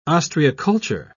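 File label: "ostreiculture", British English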